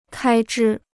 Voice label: "开支", Chinese